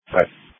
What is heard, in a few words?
Police Dispatch